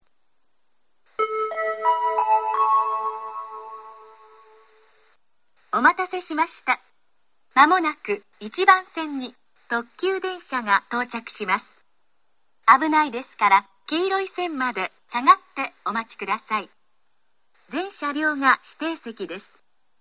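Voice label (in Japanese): 接近放送 特急 伊豆急下田行の接近放送です。; 伊東; 特急スーパービュー踊り子5号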